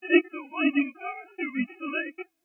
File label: sinewave speech